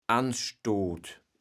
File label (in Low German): "Anstoot", kaevels platt